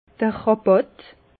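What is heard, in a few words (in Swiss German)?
"rabatt", Alsatian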